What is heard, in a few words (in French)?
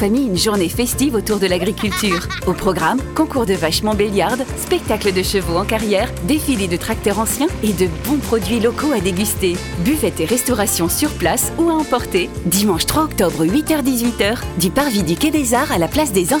spot foire radio